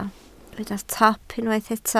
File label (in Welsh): "top", Welsh